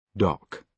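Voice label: "dock", British English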